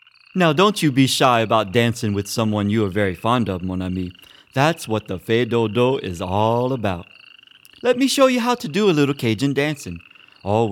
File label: Narration